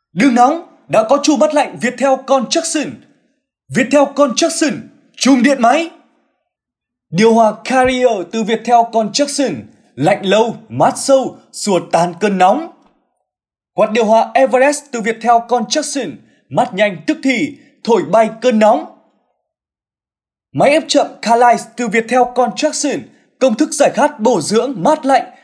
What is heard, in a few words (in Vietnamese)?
voice Mien Bac; Voice-off